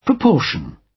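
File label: "proportion" British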